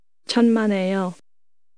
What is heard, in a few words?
you are welcome korean